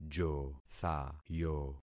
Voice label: "jothayo", concat syllables; Affixing experiment

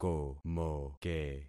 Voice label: "komoke", concat syllables; Affixing experiment

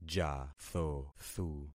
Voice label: "jathothu", concat syllables; Affixing experiment

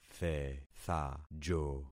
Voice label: "thethajo", concat syllables; Affixing experiment

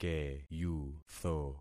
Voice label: "keyutho", concat syllables; Affixing experiment

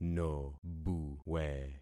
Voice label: "nobuwe", concat syllables; Affixing experiment

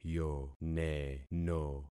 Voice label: "yoneno", concat syllables; Affixing experiment

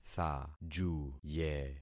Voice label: "thajuye", concat syllables; Affixing experiment

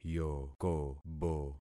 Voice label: "yokobo", concat syllables; Affixing experiment

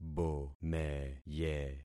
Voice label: "bomeye", concat syllables; Affixing experiment

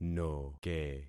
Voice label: "noke", concat syllables; Affixing experiment

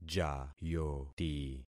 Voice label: "jayoti", concat syllables; Affixing experiment

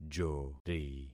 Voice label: "jori", concat syllables; Affixing experiment

